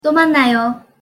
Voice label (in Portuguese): pronuncia de ate logo ou adeus